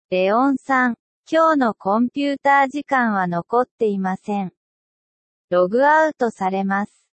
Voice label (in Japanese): speech sample ja